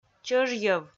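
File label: "чӧжйӧв", Komi, female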